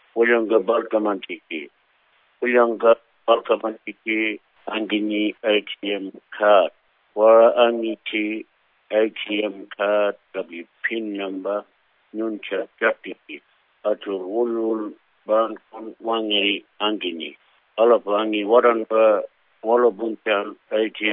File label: warramungu